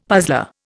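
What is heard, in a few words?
"puzzler", Indian English; Dictionary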